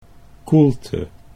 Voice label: "koelte", Dutch

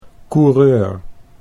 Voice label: "coureur", Dutch

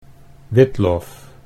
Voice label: "witlof", Dutch

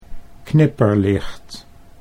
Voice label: "knipperlicht", Dutch

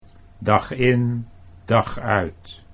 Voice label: "dag in dag uit", Dutch

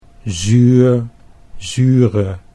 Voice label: "zuur zure", Dutch

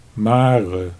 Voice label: "mare", Dutch

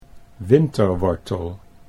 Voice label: "winterwortel", Dutch

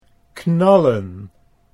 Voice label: "knallen", Dutch

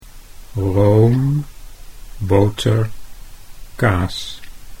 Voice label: "roomboter", Dutch

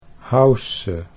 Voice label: "hausse", Dutch

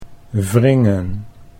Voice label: "wringen", Dutch

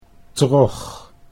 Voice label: "trog", Dutch

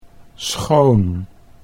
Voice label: "schoon", Dutch

